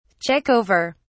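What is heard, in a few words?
"check over", British English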